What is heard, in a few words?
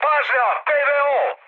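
Serbian damaged aa mood high, speech; War Thunder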